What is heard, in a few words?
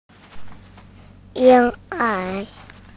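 "ying1 er2", Mandarin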